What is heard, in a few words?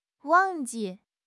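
"wang4 ji4", Chinese; HSK; HSK3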